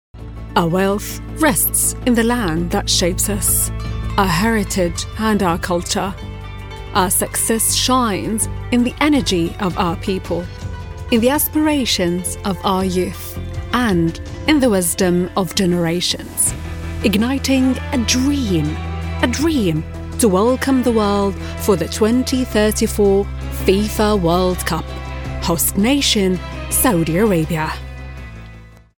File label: female arabic english with soft arabic accent commercial; Devine Voices